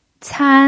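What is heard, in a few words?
"can", pinyin, first tone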